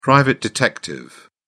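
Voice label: "private detective", British English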